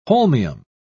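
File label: "holmium", British English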